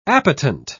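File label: "appetent", British English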